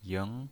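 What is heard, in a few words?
"ying" Mandarin Chinese pinyin voice, second tone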